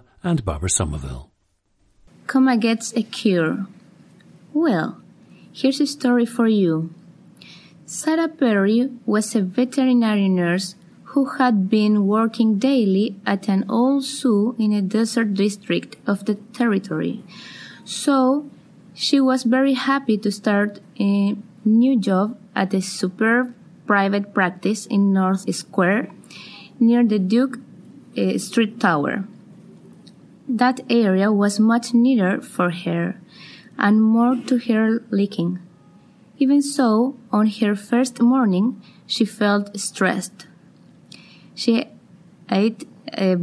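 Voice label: chile, International Dialects of English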